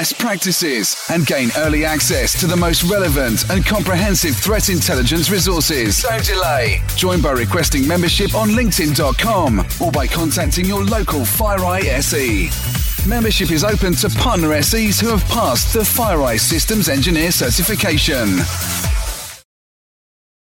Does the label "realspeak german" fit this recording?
No